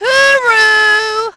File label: hooroo, Brit, Positive; Splashdown; XBOX